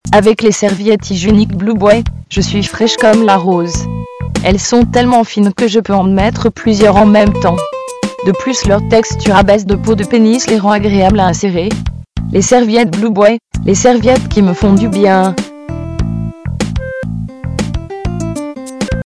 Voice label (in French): Publicite